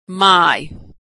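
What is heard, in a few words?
"my", American English; vowel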